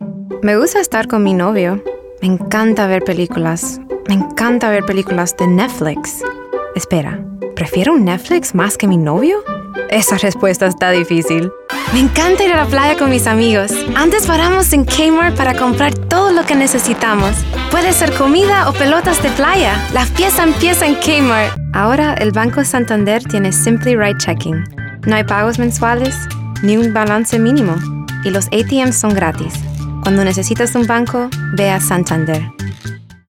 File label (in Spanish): Spanish; Foreign Languages